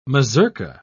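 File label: "mazurka", British English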